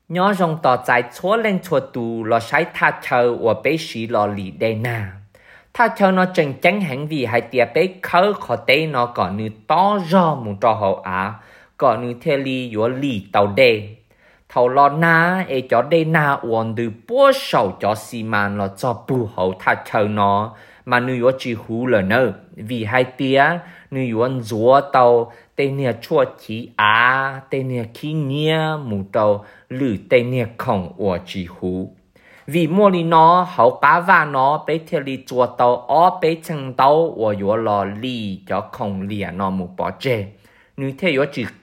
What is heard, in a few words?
Hmong; Retention